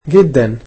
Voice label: "very", egyptian